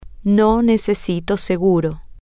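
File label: I do not need insurance, Spanish, female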